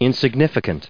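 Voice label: "insignificant", US English pronunciation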